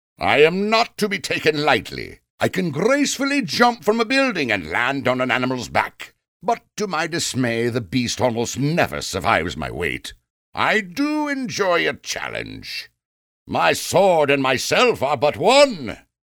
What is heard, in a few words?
British Videogame Character